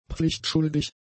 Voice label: "pflichtschuldig", German; adjectives

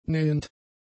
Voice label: "nähend", German; adjectives

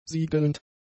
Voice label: "siegelnd", German; adjectives

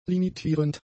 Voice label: "limitierend", German; adjectives

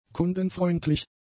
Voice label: "kundenfreundlich", German; adjectives

